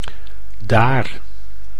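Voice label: "daar", Dutch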